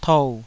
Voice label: "tou3", Taiwanese

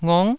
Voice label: "ngong9", Taiwanese

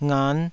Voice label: "ngan5", taiwanese syllables

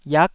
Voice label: "iak9", Taiwanese syllable

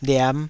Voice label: "liam5", Taiwanese syllable

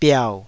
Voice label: "biau3", taiwanese Syllables

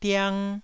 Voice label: "diang1", Taiwanese

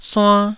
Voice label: "suann1", taiwanese Syllables

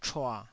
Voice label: "chua3", taiwanese

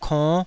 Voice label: "konn5", taiwanese syllables

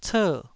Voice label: "cer4", taiwanese syllables

